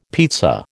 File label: "pizza", American